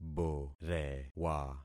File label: "borewa", concat syllables; Affixing experiment